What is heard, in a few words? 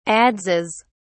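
"adzes", British English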